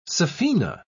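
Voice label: "saphena", British English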